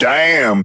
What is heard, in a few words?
"damn", expletives